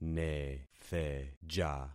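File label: "netheja", concatenated syllables; Affixing experiment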